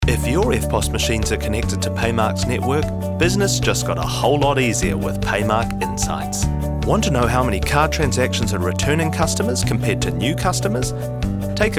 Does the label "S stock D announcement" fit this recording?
No